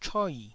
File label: "choi4", taiwanese